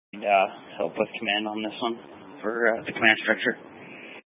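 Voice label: Incident Tactical 1, Scanner audio recording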